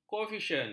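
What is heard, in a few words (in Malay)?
"koefisien", Malay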